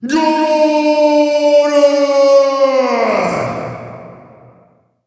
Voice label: scored voice